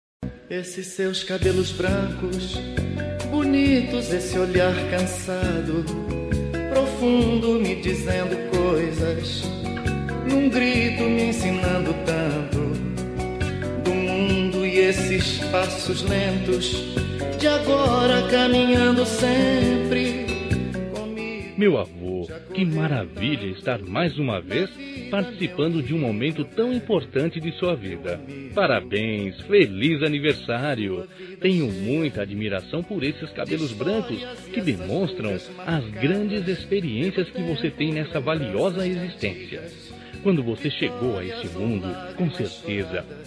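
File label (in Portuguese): avo masc, Telemensagens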